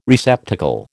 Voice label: "receptacle", American